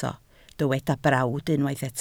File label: "brawd", Welsh